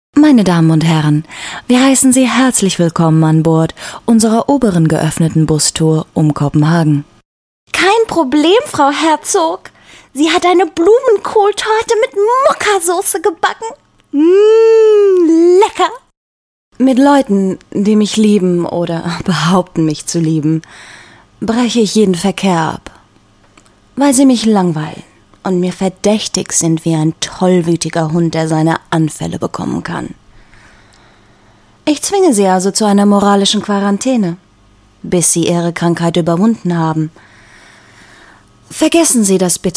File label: DE, Characters; GoLocalise